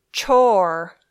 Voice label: "chore", English

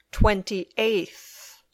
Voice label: "twenty eighth", English

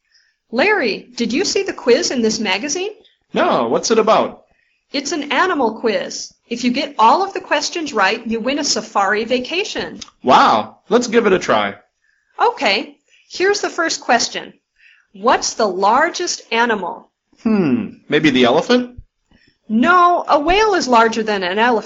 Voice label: Dialog

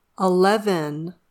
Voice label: "eleven", English

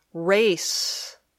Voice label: "race", English

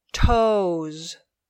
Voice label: "toes", English

